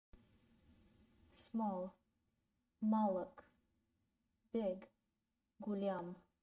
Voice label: Small big, Bulgarian; the talking bulgarian phrasebook